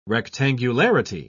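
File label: "rectangularity", British English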